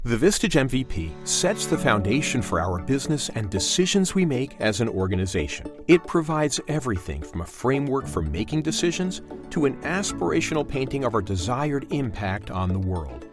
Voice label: Corporate Traning; Vistage MVP

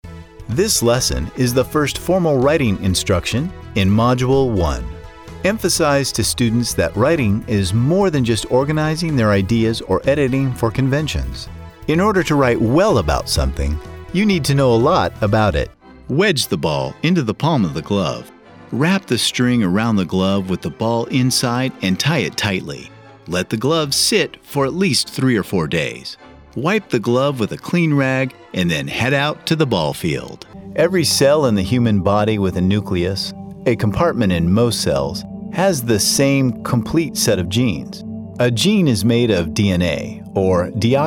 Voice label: E-Learning

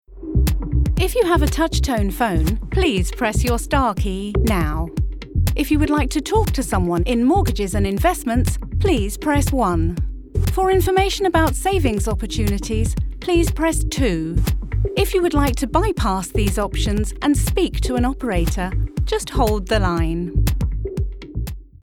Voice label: IVR

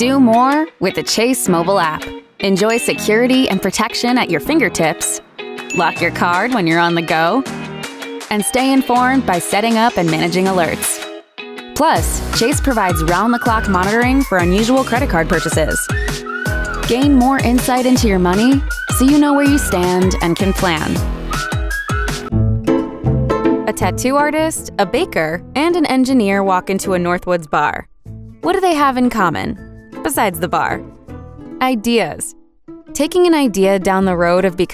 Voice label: Explainer

